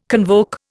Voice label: "convoke", Indian